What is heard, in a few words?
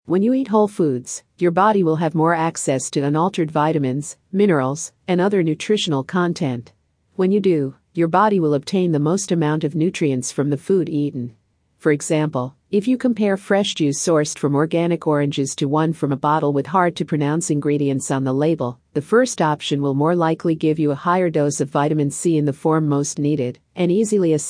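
Female Audio; Whole Foods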